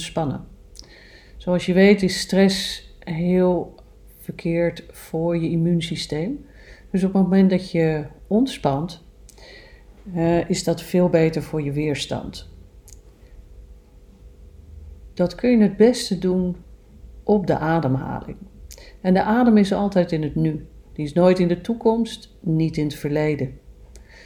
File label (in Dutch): Ontspannings Meditatie, geleide meditatie; voor ontspanning